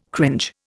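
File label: "cringe", Indian English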